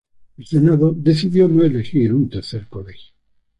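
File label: "elegir", Spanish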